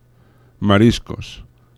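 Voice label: "mariscos", Spanish; laboratori fonetica; paraules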